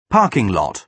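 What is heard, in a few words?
"parking lot", British English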